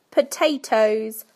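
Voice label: "potatoes", British English; PictureDictionary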